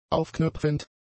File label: "aufknöpfend", German; adjectives